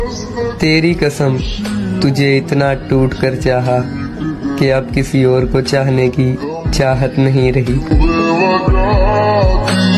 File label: Broken Heart Shayari Ringtone